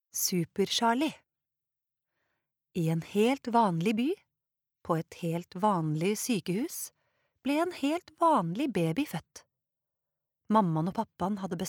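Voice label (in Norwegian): barnebok, Norwegian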